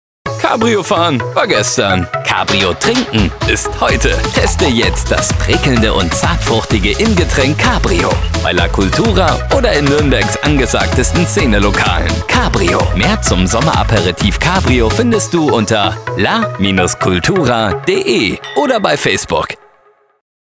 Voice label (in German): radiospot; cabrio; lacultura